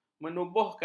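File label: "menubuhkan", Malay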